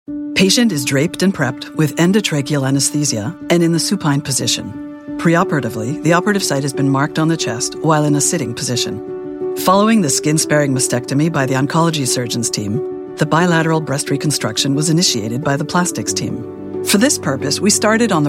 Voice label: english canadian e-learning, canadian english voice actors